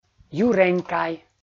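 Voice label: "юренькай", Komi, male; kpv audio dict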